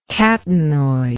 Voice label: "catenoid", English

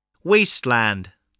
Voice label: "wasteland", spelling

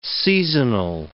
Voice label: "seasonal", English

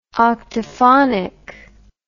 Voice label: "octaphonic", English

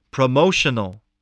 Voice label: "promotional", voice spelling

